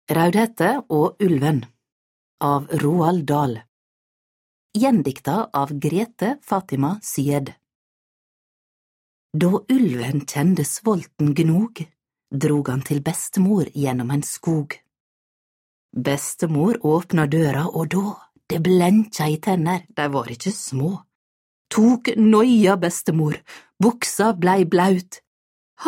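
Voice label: Audiobook female Bokmål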